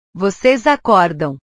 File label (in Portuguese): "vocês acordam", portugues; Ustedes despíertan